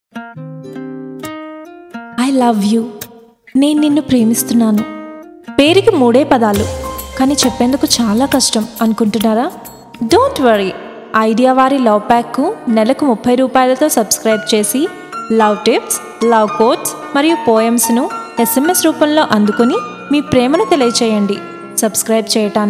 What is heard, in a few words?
Female Telugu VO Sample; Love pack